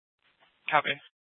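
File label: police dispatch radio, scanner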